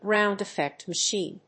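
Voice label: "Ground Effect Machine", English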